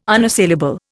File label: "unassailable", Indian